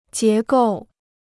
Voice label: "结构", Chinese